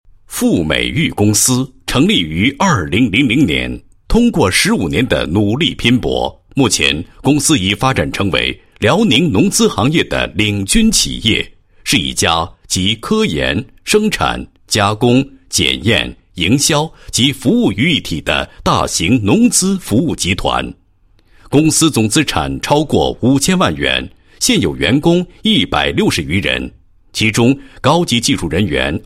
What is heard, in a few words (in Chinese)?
国语男声配音 企业专题企业介绍; 公司简介